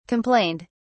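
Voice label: "complained", British English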